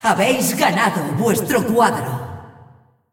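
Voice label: announcer female tournaments win bracket generic, es ar; clashvo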